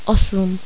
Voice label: "osm", Czech

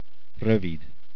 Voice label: Short, hungarian

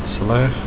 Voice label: "bad", afrikaans

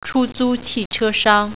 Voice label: "car agency", Mandarin

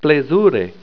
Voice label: "Plezure", Esperanto; Nice to meet you.

